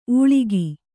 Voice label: "ಊಳಿಗಿ", kannada

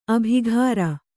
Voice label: "ಅಬುಕಾರಿ", Kannada

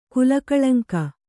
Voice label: "ಕುಲಕಳಂಕ", Kannada

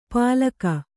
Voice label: "ಪಾಲಕ", kannada

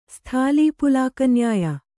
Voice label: "ಸ್ಥಾಲೀಪುಲಾಕನ್ಯಾಯ", Kannada; sthālī pulāka nyāya; noun

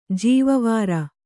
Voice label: "ಜೀವವಾರ", Kannada

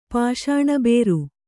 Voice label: "ಪಾಷಾಣಬೇರು", Kannada

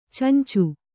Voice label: "ಚೊಂಚು", kannada

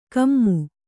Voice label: "ಕೊಮೆ", kannada

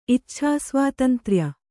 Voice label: "ಇಚ್ಛಾಸ್ವಾತಂತ್ರ್ಯ", kannada

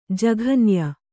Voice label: "ಜಘನ್ಯ", kannada

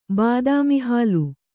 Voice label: "ಬಾದಾಮಿಹಾಲು", Kannada

